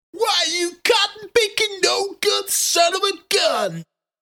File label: Hillbilly, Hill billy cartoon character speech.; Cartoon Voices